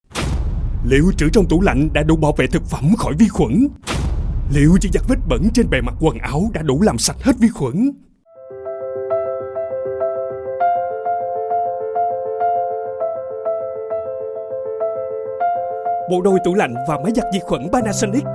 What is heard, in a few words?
Commercial